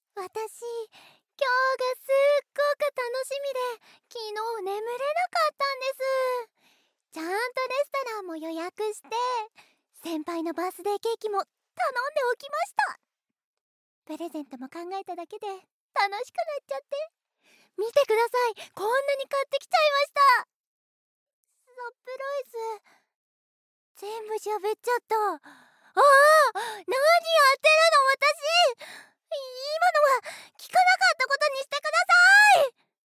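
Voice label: voice sample, airhead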